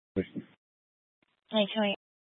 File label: Fire Dispatch